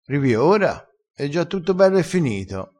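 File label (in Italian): "bell e", vocabolario dialettale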